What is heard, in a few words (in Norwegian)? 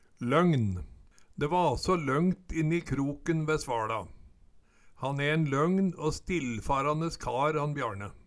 longn, Numedalsmål